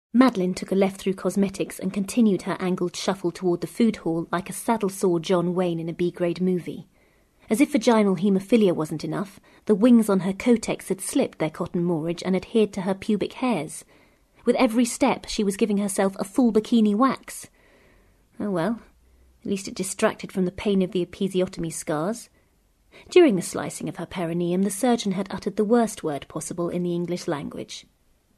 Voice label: narration